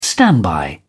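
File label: "standby", British English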